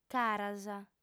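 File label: "caras", female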